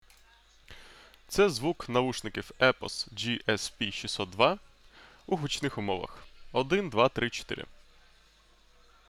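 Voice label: epos gsp 602 loud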